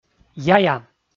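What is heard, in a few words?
"яя", Komi, male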